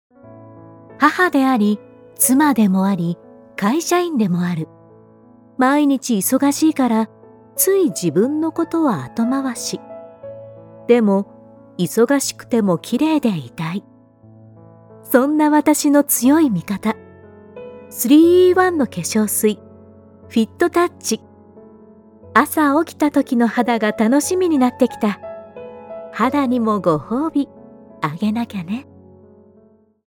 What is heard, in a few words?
female